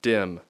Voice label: "dim", English